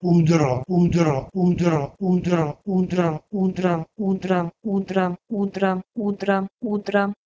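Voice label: Proto-Indo-European "udro" to Lithuanian "udra"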